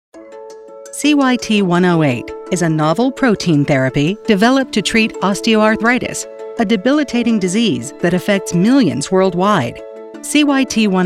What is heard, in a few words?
Medical Narration